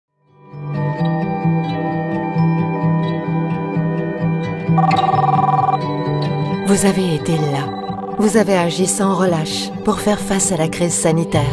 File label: French corporate